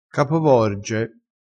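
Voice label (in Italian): "capovorge", dialettale crespinese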